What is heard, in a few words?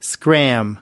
"scram", us